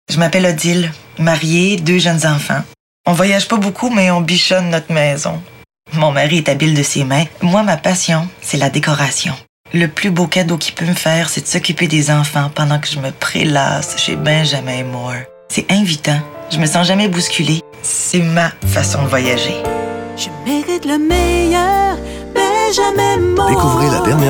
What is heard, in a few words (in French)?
voix femmes